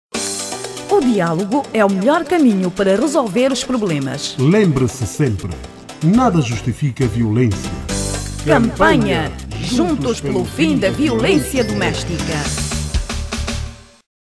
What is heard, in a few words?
Radio Spot Portuguese; Angola